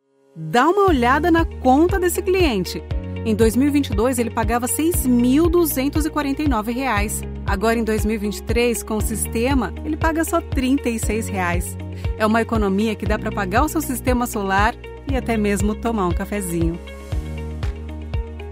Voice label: portuguese brazilian

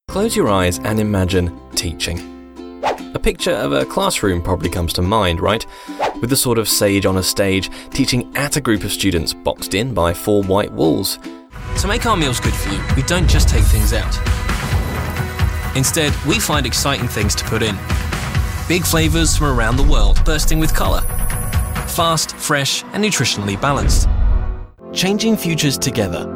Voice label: english british corporate

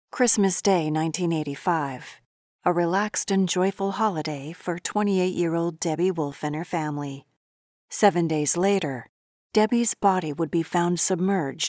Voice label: english american documentaries

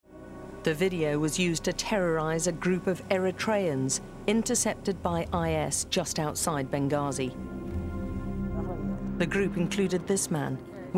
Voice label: English British documentaries

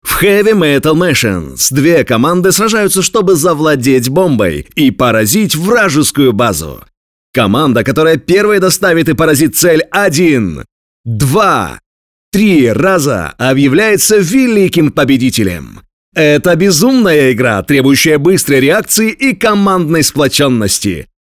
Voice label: russian animation

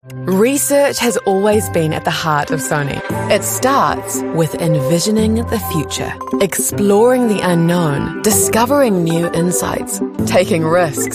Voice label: english new zealand narration

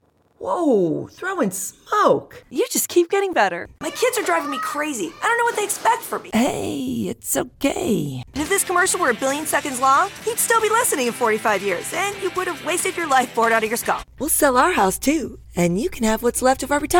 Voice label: english american animation

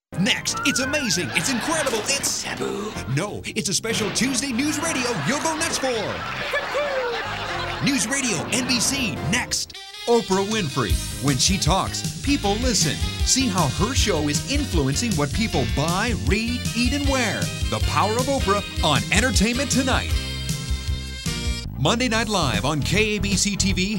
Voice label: english american promos

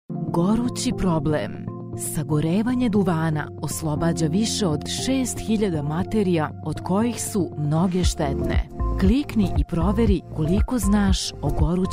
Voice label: Serbian documentaries

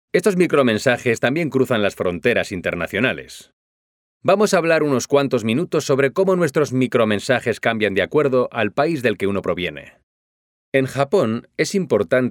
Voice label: spanish explainer videos

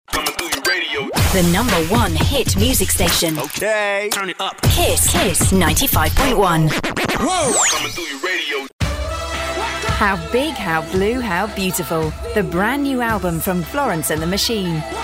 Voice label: english british radio imaging

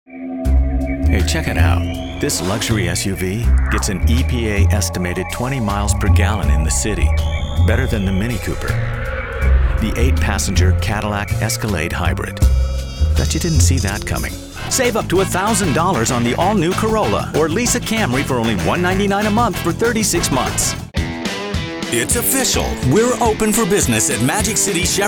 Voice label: english american automotive

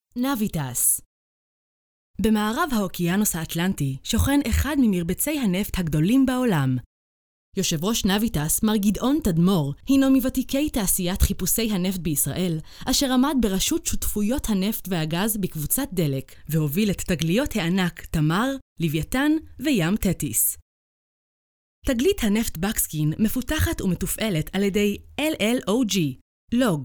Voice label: hebrew corporate videos

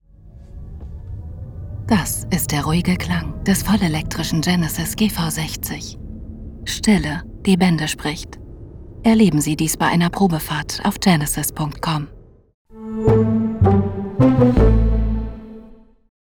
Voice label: german automotive

